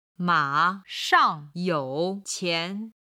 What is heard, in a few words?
"mashangyouqian", Chinese